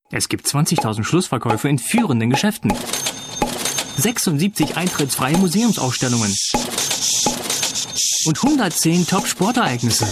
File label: Ger